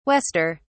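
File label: "wester", British English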